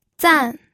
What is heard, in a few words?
"zan4", pinyin